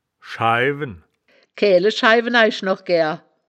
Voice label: "schaiwen", wittlicher; das wittlicher woerterbuch; woerterbuch vertonung

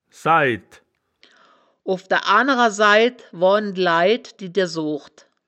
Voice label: "said", wittlicher; das wittlicher woerterbuch; woerterbuch vertonung

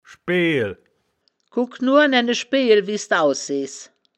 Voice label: "schbeejel", wittlich

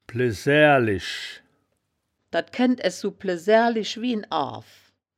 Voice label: "blesaeaer lisch", woerterbuch, Wittlich; blesäärlisch

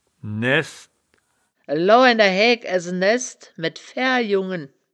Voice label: "naehsd", wittlicher; das wittlicher woerterbuch; woerterbuch vertonung

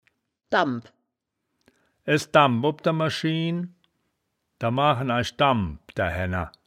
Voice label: "dambp", wittlicher

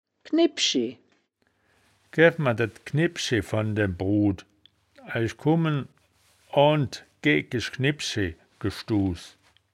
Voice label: "knibbschi", wittlicher; das wittlicher woerterbuch; woerterbuch vertonung

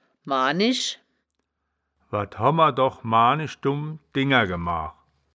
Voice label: "maanisch", wittlich

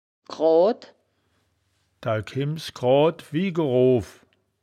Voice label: "groad", wittlich

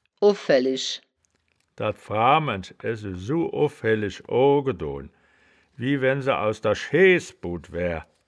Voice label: "owfaehlisch", wittlich

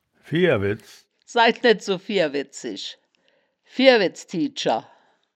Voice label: "vierweds", wittlich

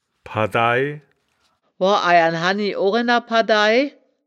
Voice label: "padtai", wittlich

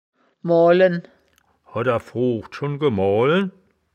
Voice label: "moahlen", wittlicher woerterbuch; das wittlicher woerterbuch; woerterbuch vertonung

